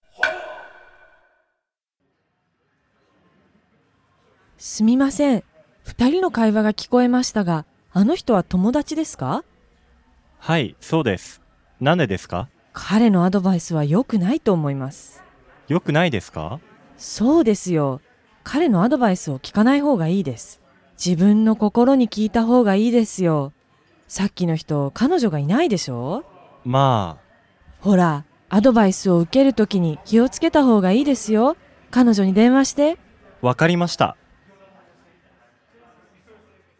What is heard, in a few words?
dialog; JapanesePod101; Beginner Season 1